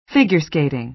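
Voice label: "figure skating", American English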